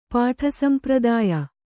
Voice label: "ಪಾಠಸಂಪ್ರದಾಯ", Kannada